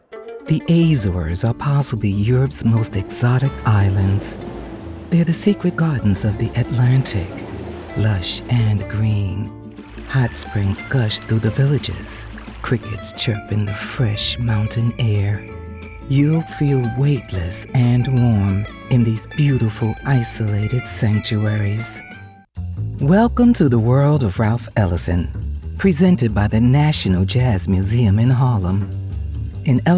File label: Narration